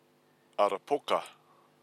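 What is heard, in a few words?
"arapoka", Maori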